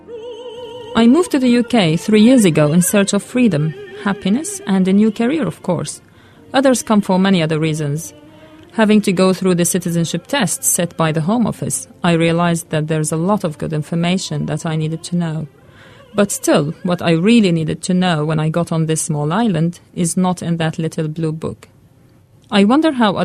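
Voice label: Arabic English; Arabic (Iraq), Middle Eastern, Male, 30s-50s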